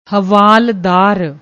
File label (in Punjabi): "hwaldar", Punjabi; ਹਵਾਲਦਾਰ